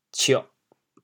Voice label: "cioh4", chaoyang

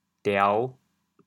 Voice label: "diao5", chaoyang

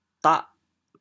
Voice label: "dah8", chaoyang

